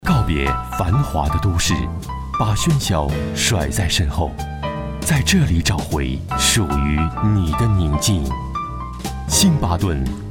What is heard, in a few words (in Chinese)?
男; 星巴顿酒店